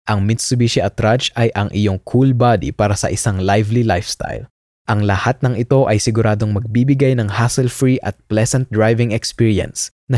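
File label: Filipino Low key, voice over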